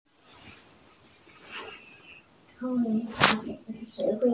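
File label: "zao3can1" Mandarin Chinese, female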